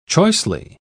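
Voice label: "choicely", British English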